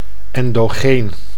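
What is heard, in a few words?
"endogeen", Dutch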